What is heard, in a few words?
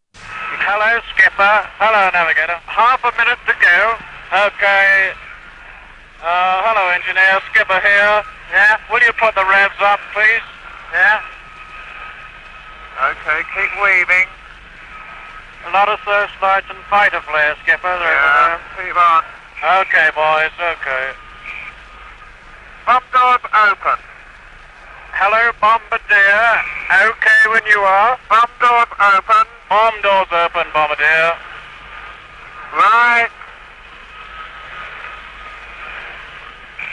WW2 bomber radio chatter